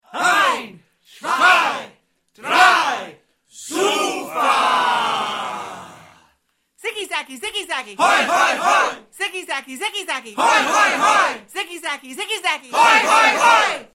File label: german toast